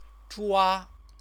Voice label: "zhua", Chinese